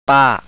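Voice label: voiceless unaspirated bilabial, thai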